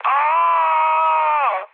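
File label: Serbian damaged pilot mood high, speech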